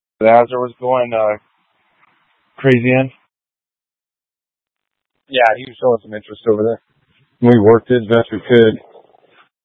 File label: Mutual Aid-Intersystem Interop B, scanner